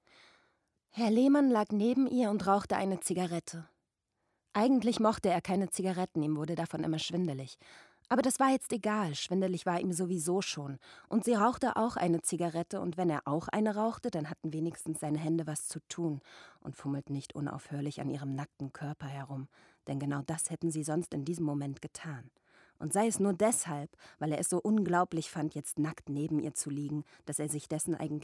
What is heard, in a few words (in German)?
Stimmprobe Hoerbuch; Herr Lehmann; LOFT VOICES